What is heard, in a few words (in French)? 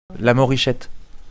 "morichette", prononciation